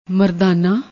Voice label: "mardaana", Punjabi; ਮਰਦਾਨਾ

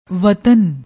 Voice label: "watan", Punjabi; ਵਤਨ

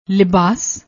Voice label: "libaas", Punjabi

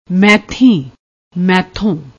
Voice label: "maithin", Punjabi

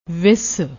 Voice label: "wiss", Punjabi

